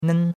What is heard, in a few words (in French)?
"nen1", chinois; Cours-de-Chinois